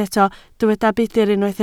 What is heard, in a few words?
"budr", Welsh